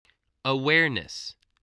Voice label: "awareness", english